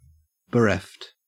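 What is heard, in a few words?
"bereft", Australian English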